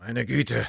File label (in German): negative vocalization